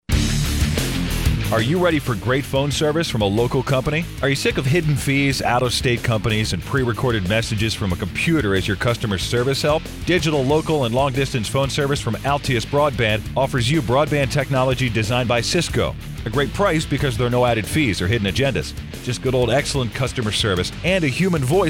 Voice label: radio spot